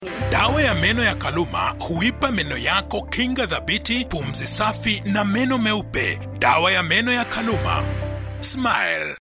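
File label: Swahili Commercial; GoLocalise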